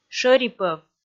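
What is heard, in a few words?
"шӧрипӧв", Komi, female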